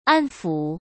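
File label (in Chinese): "安抚", Mandarin Chinese; hsk; chinese-flashcards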